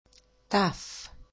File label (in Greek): "tau", Greek; ταυ; [taf]